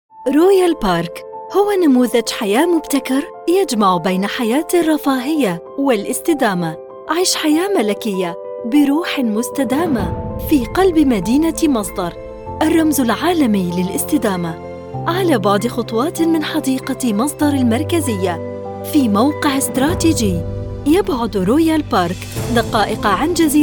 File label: voiceover